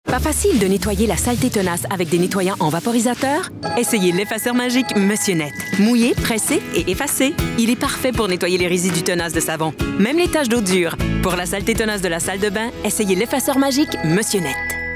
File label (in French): VO pub; voix hors champ